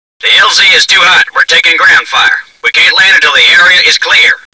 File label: pilot tk fire, voice